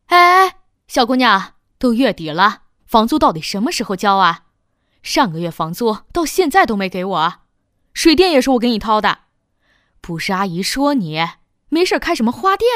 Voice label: 女 角色 30岁房东太太; 素人自然

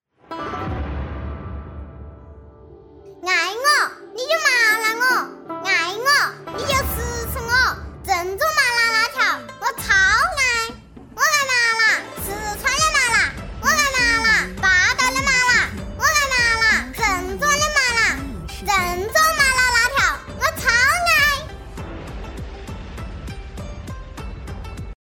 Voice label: 男 真女童 辣辣川妹子 小女孩【4-5岁】; 中英双语; 素人自然

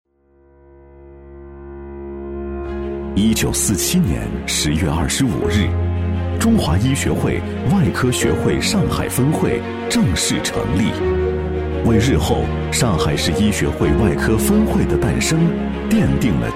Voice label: 男 年会视频; 上海市医学会普外科专科分会年会

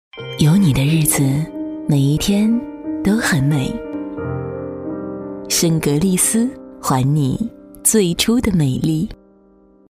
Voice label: 女 品牌广告; 质感磁性; CCTV-圣格丽斯

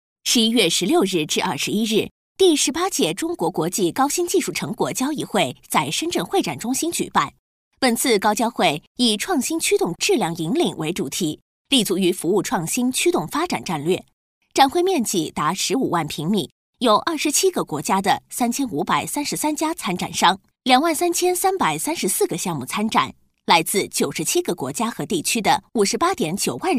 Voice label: 女 新闻配音【社会新闻】; 年轻稳重

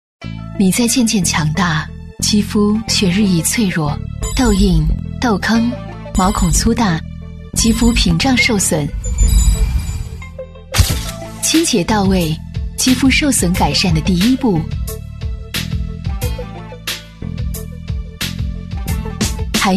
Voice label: 女-化妆品广告-品质磁性; 质感磁性

